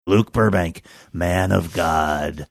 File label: saying man of god deep voice